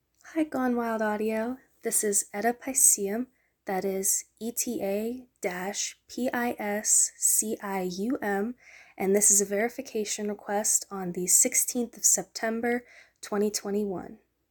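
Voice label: Female Verification; F4A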